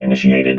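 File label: "initiated", robot voice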